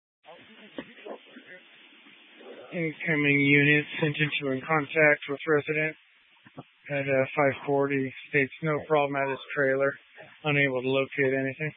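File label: Incident Tac 1, Scanner audio recording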